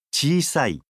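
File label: "chiisai", Japanese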